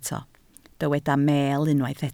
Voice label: "meel", Welsh

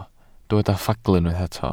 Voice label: "ffagl" Welsh

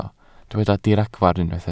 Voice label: "diragfarn" Welsh

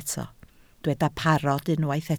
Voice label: "parod" Welsh

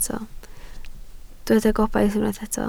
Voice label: "gobaith", Welsh

